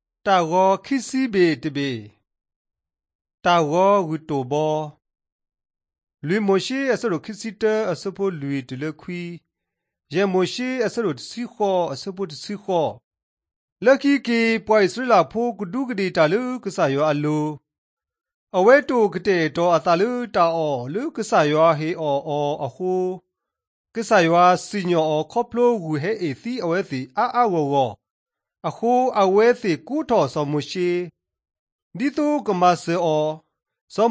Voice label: drama, karen sgaw; mighty men of god; bible